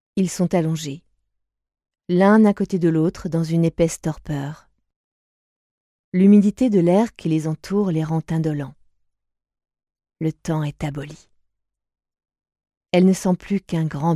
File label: Narration; Ouragan; GAUDE